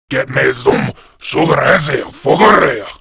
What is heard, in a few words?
Cover Me, Grineer Male; Warframe; Battle Barks